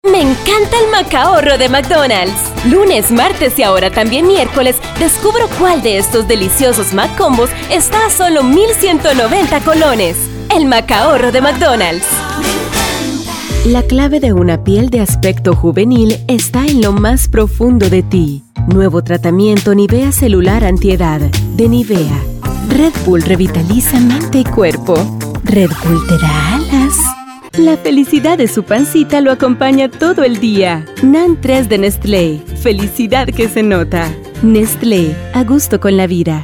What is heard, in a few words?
Commercial